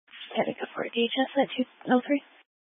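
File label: Sheriff Dispatch, Scanner audio recording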